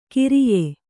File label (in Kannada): "ಕಿರಿಯೆ", Kannada